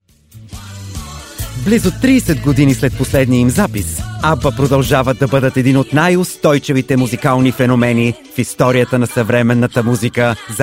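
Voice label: Bulgarian Narrative; GoLocalise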